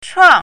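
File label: "chuang4", Mandarin Chinese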